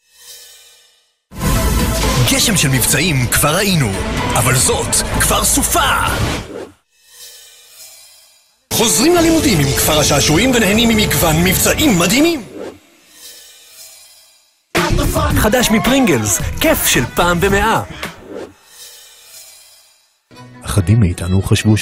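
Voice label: Hebrew, male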